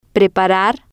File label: "preparar", Spanish